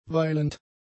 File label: "weilend", German; adjectives